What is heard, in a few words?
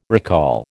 "recall", American